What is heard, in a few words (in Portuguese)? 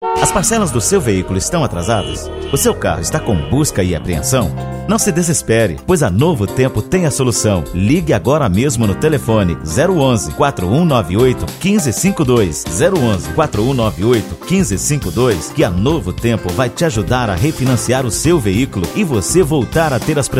spot; Spot Novo Tempo